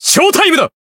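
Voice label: Voice limit break, Japanese; joker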